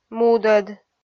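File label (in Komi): "мудӧд", Komi, female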